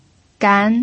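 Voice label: "gan", Mandarin Chinese, second tone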